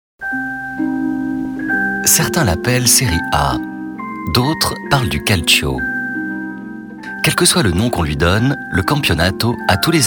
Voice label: Canal Sport conteur posé; Le ton Canal

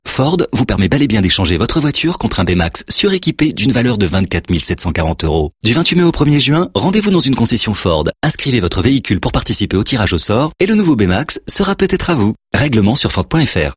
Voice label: tonique souriant; voix off; Ford bmax

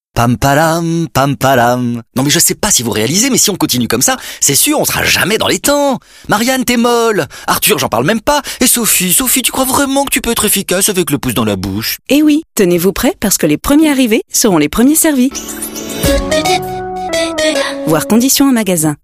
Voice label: Energique debordé; Darty

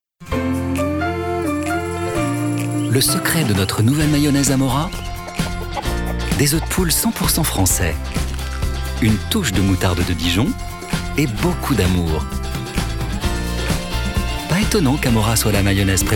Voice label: Souriant complice, Pubs; voix off; Amora

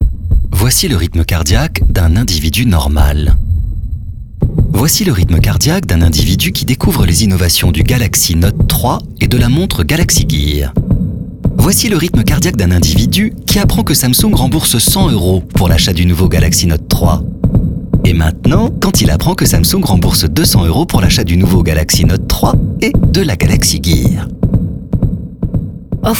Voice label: posé ironique; galaxy-samsung; voix off

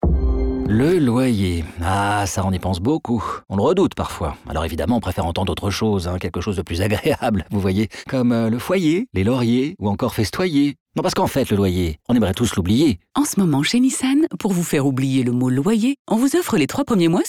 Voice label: Agacé; Nissan

